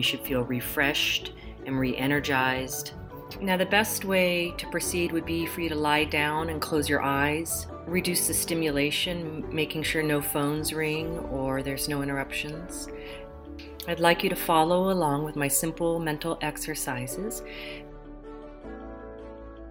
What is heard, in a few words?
Deep Relaxation Destress Reset Hypnosis